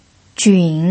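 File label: "jun" third tone, pinyin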